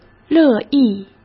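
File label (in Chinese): "乐意", Mandarin Chinese; hsk; chinese-flashcards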